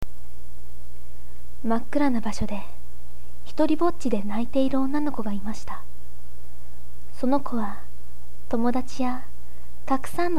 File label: girl; 少女